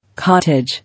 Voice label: "Cottage", English